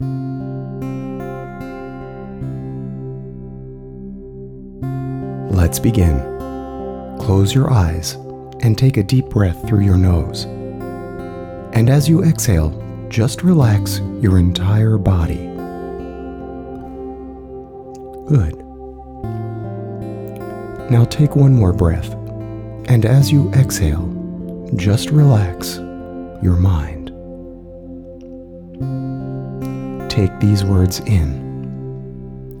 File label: money affirmation with music